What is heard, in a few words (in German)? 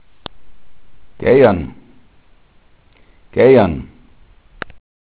"geijern", dialekt